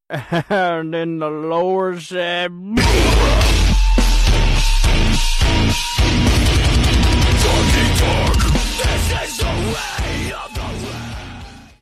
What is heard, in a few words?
church pastor drops breakdown